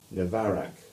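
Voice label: "Navarac" English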